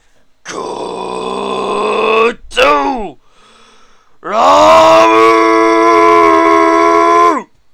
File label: God Voice